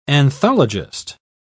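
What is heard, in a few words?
"anthologist", British English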